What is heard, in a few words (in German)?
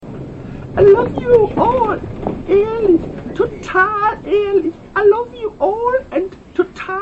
lustig verruckter mann im bus gefilmt